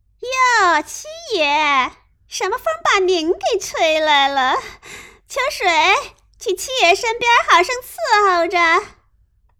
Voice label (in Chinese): 老鸨音